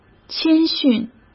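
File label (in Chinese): "谦逊", Mandarin Chinese; hsk; chinese-flashcards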